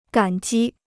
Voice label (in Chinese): "感激", Chinese